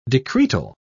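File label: "decretal", British English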